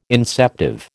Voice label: "inceptive", American